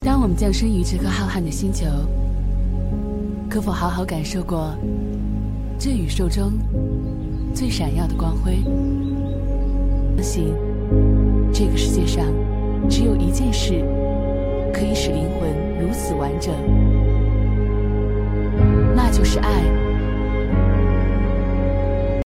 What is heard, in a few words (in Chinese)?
女, 微电影; 愿爱生生不息